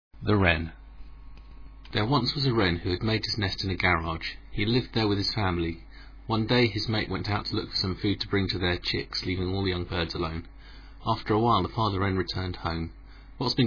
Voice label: english england